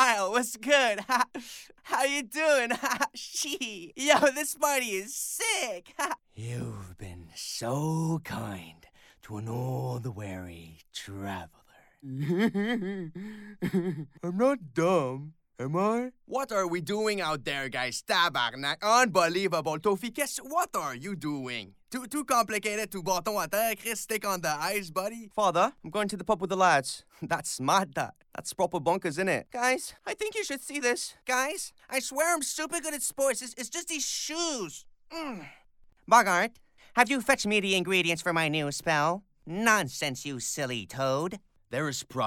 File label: Animation ENG